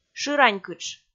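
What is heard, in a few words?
"шыранькытш", Komi, female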